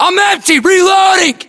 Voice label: inform reloading generic, US; localized english; battlechatter